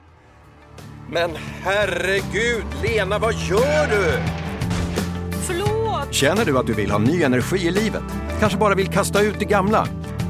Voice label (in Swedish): voiceover reklam radio energisk